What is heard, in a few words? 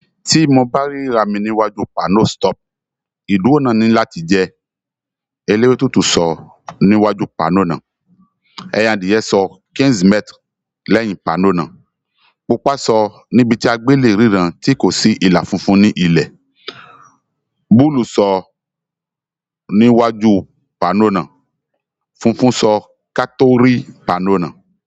YORUBA